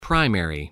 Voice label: "primary", voice, spelling

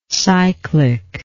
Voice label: "cyclic", english

